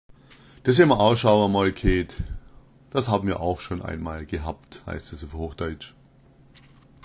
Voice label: gheet, schwaebisch

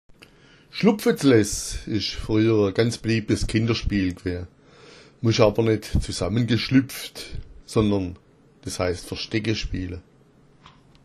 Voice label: schlupfetles, schwaebisch; Schlupfetles war früher ein sehr beliebtes Kinderspiel

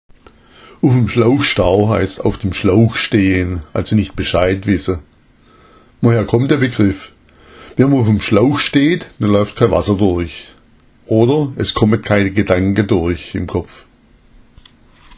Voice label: "schlauch stao", schwaebisch

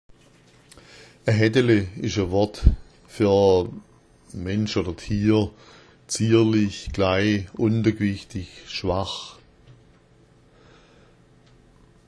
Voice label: haeddele, schwaebisch

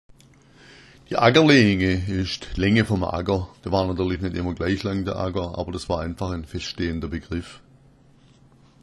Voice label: "ackerleenge", schwaebisch

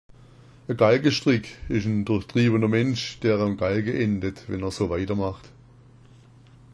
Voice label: galgastrick, schwaebisch; a Galgenstrick ist ein durchtriebener Mensch, der am Galgen endet, wenn er so weitermacht.

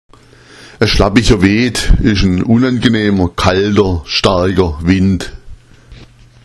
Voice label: schlappicher weed, schwaebisch; Ein schlappicher Weed ist ein unangenehmer, kalter, starker Win

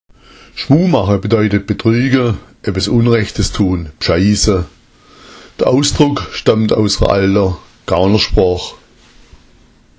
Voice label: schmu, schwaebisch; Schmu macha; Schmu machen, bedeutet betrügen, etwas unrechtes tun. Der Ausdruck stammt aus der alten Gaunersprache.

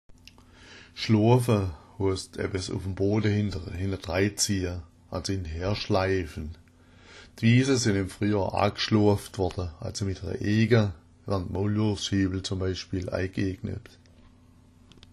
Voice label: "schloafa", schwaebisch

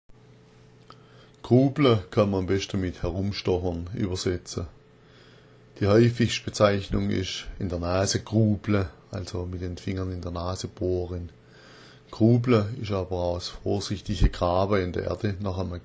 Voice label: grubla, schwaebisch

